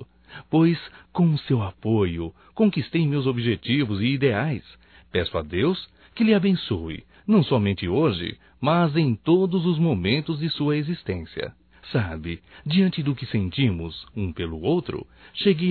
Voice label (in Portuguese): ANIV Romântico (M)